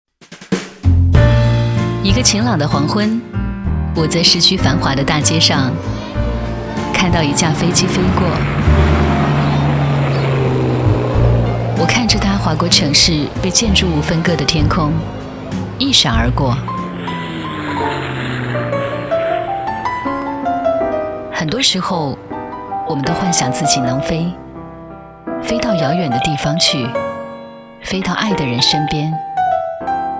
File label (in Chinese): 台宣